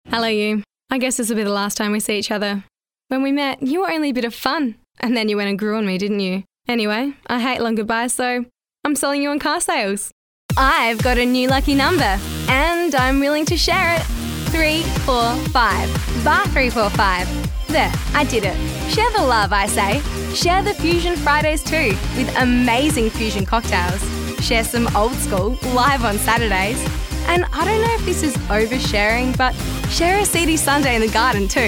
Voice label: Voice Acting

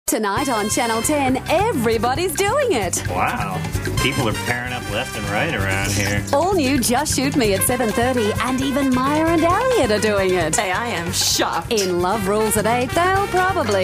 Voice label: promos

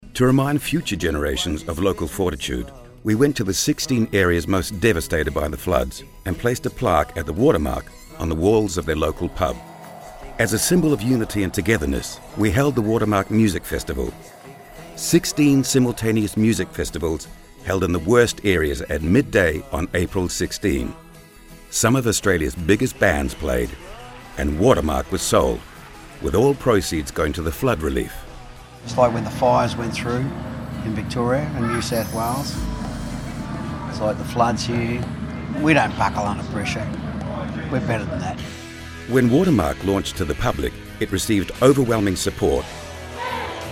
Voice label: corporate

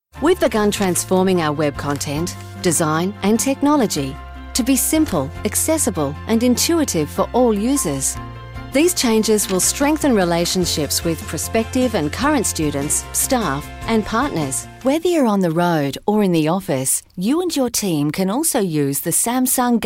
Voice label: Corporate

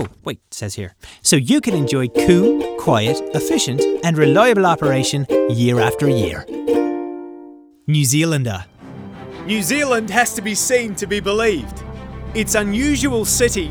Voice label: Genuine Accent

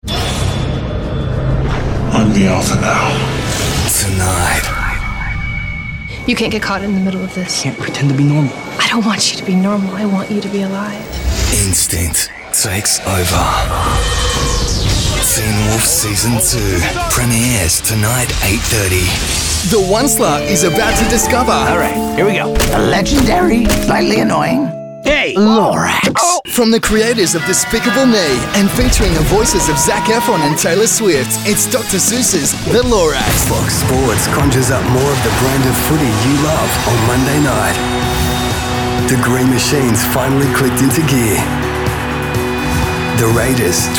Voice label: Promos

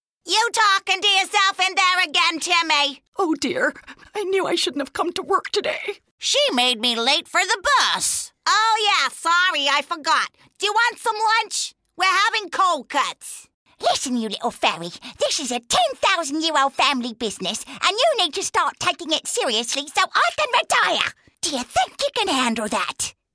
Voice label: CHARACTER

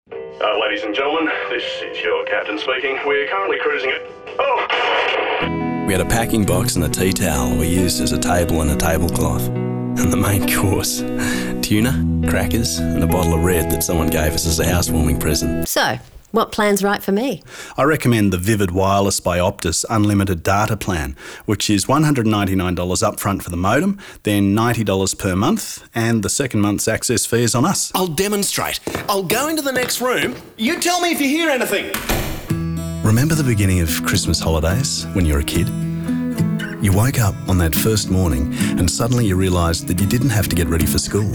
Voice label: Voice Acting

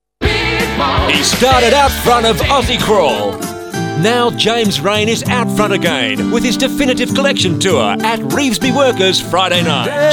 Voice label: promos

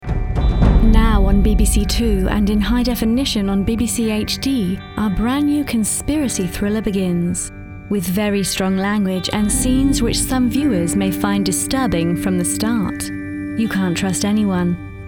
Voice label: TV Promos

